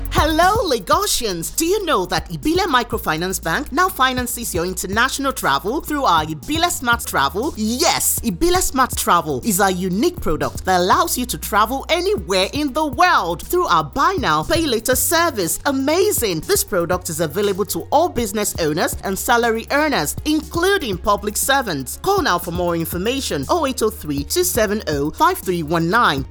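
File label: Yoruba, female, English, Nigeria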